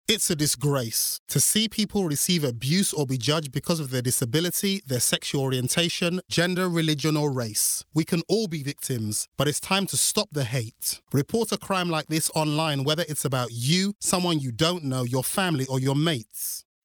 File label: Commercial; Hate Crime